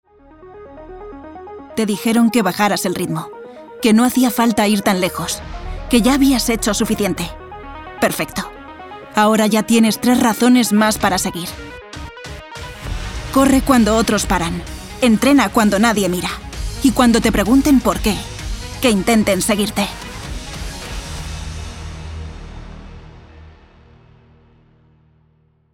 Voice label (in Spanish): generica actitud